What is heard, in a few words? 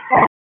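Raleigh Police North District Tactical, p25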